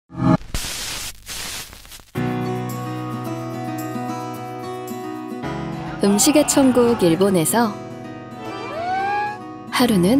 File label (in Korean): 차분한 30대; 일본정부관광청